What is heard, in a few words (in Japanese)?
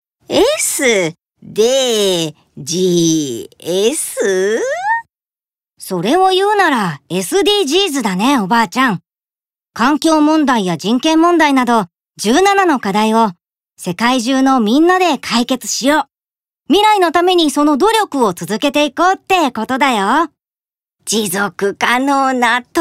おばちゃんと孫